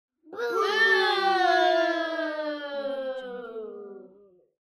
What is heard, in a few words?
kids say boo